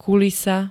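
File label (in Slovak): "kulisa", Slovak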